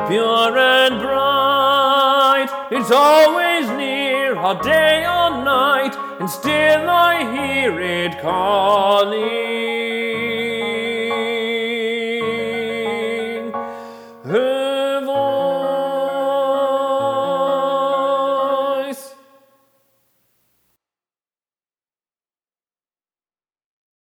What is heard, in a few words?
Her Voice vox